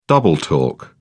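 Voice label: "double talk", British English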